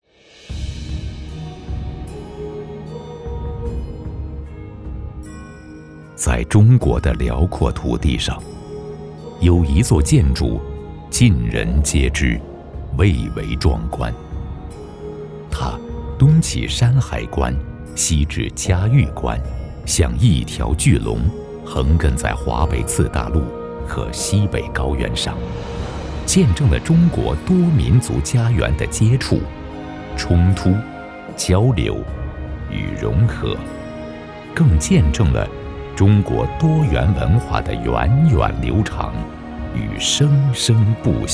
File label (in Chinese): 纪录片明长城